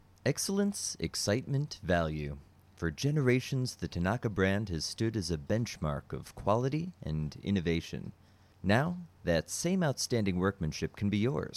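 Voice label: narration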